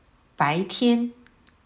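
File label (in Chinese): "白天", Chinese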